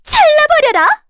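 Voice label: Vox Skill, Korean; Erze; kingsraid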